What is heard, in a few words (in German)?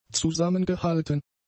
"zusammengehalten", German; adjectives